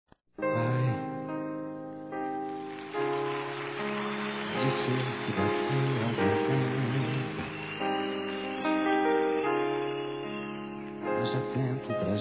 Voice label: Casamento de Pai, Masc; Telemensagens; Telemensagens 2017

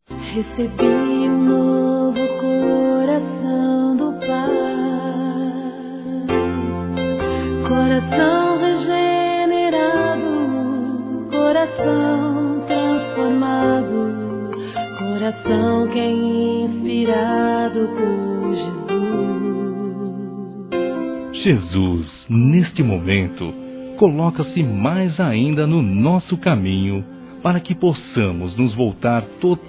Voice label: Desculpas Evangélicas, Masc; Telemensagens 2017

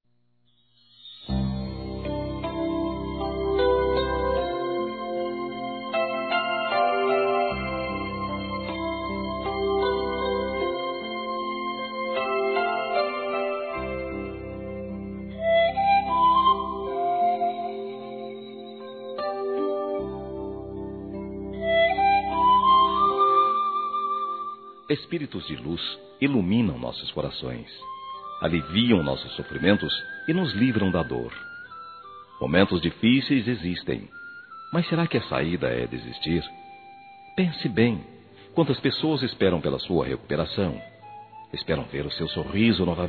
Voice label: Conforto Amigo Masculino, Telemensagens; Telemensagens 2017

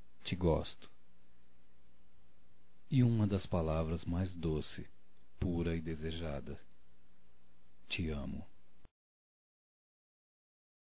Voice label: Gostaria de Dizer Masculino; Românticas

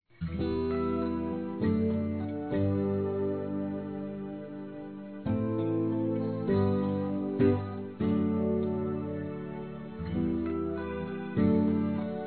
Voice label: MASC; Conquistas Cantadas paqueras; Um Segredo; Telemensagens 2017

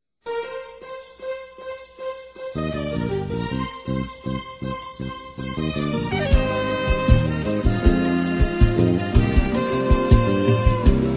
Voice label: ROMANTICA M; Aniversários; ANIVERSARIO romantico; masc

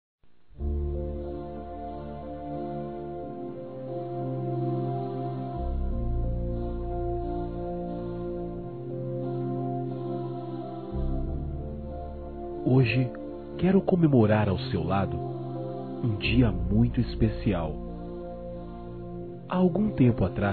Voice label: Nosso Aniversário Casamento Masculino; Telemensagens 2017; Aniversários

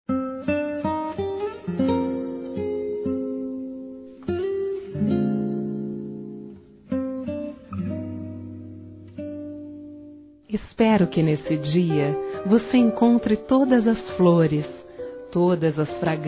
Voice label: Aniversário Feliz Aniversário Feminino; Aniversários; Aniversários diversos

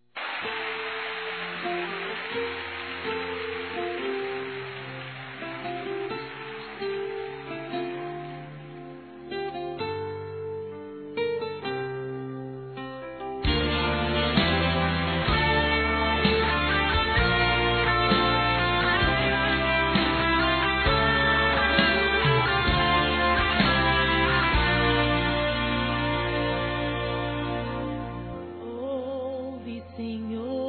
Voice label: Aniversário Neutro Evangelico Feminino

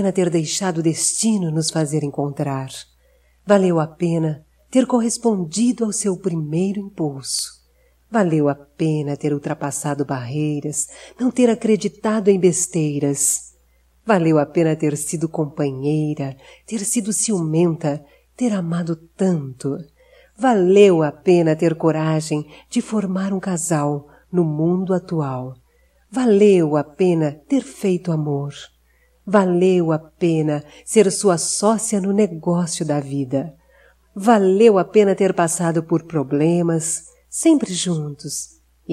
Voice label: Aniversário Romântico Feminino, Telemensagens

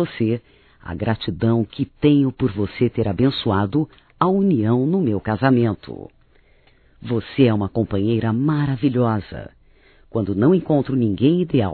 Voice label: Aniversário Irmão Feminino; Aniversarios; Telemensagens; Telemensagens 2017

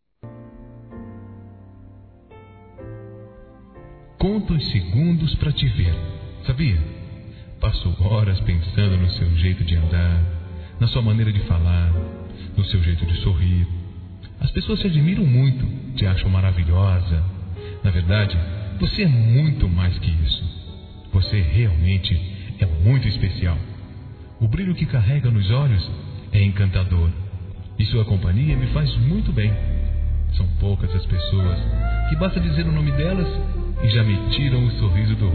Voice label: PAQUERA M; Telemensagens; Conquistas Cantadas paqueras; masc; Telemensagens 2017

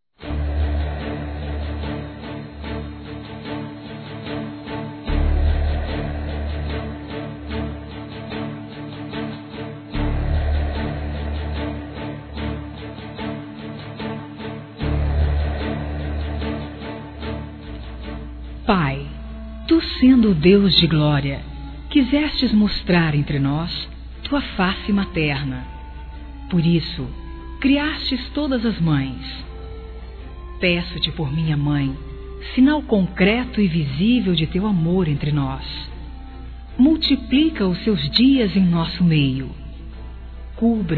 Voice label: HOMENAGEM PARA MÃE, Gospel, fem; Telemensagens; Telemensagens 2017

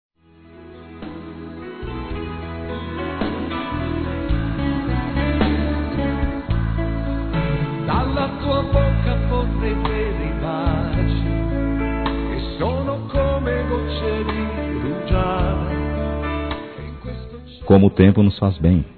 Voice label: Romântica para Casados Masculino, Telemensagens

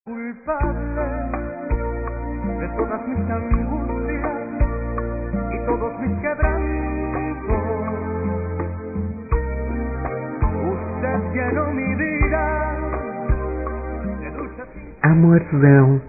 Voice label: amantes f; Dia Dos namorados; fem; Telemensagens 2017

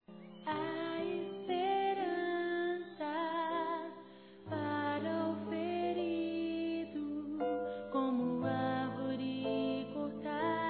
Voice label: Otimismo Masculino, Telemensagens; ANIV. Evangelico

